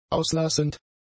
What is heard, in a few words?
"auslassend", German; adjectives